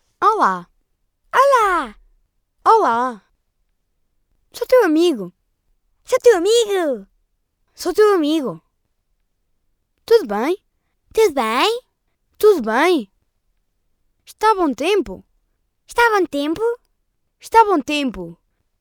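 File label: Portuguese child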